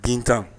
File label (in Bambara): "gintan", Bambara; actions